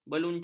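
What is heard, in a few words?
"beluncas", Malay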